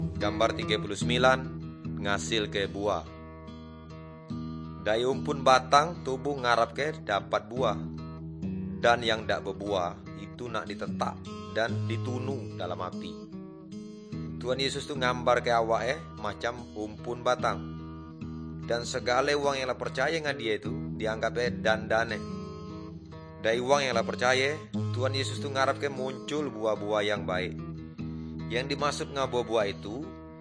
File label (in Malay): good news melayu tanjung raja